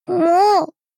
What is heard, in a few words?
angry